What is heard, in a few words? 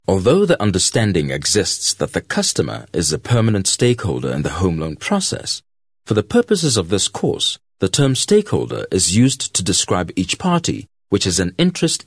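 male, South African English, corporate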